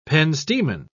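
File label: "penstemon", English, British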